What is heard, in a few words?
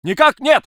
voice sov infantry